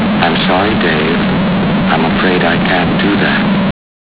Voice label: raw 3 bit